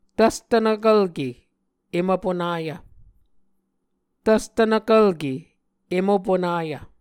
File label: "tvstvnvkvlke em opunayv", Muscogee